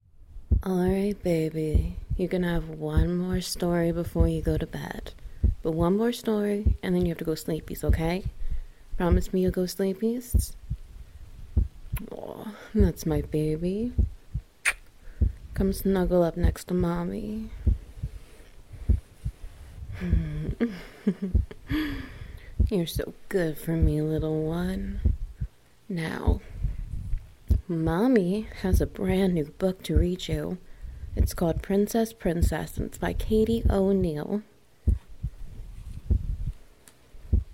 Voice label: Storytime Rain Heartbeat Headpats